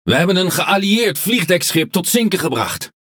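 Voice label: NL Speech Destroy Ally Aircarrier, Dutch; World Of Warships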